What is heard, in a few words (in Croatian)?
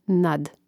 "nad", Hrvatski